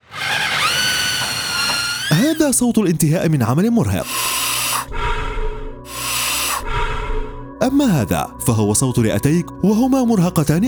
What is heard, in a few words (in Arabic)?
RADIO ARABIC; asbestos